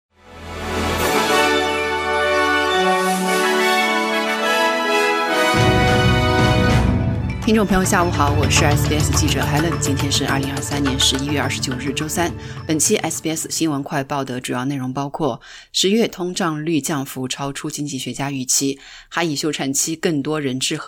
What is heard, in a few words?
mandarin newsflash; 中国官员敦促澳洲政府在南中国海谨慎行事; SBS Chinese; SBS新闻快报